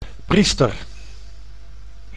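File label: "priester", Dutch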